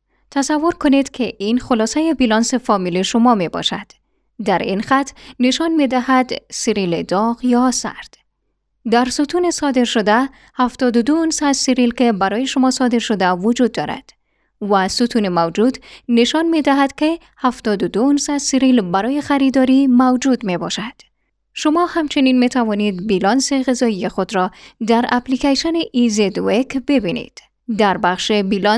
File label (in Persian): Dari Healthy diet training